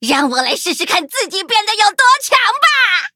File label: levelup zh-hant; cookierun